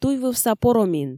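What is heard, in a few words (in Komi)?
туйвывса поромин, Komi, female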